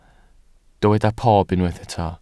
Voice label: "pob", Welsh